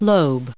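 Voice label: "lobe", US English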